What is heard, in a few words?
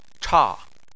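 "cha" fourth tone, Chinese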